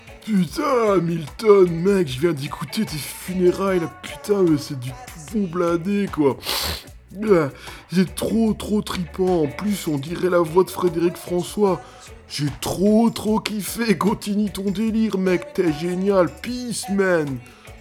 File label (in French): Repondeur Reggae Man